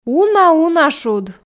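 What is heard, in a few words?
"уна-уна шуд", Komi, female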